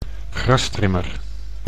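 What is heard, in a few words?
"grastrimmer", Dutch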